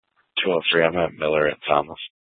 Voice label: Police South Dispatch; Scottsdale Police